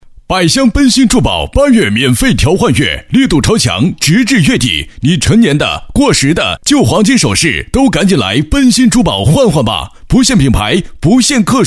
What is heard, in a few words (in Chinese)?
男 广告 珠宝促销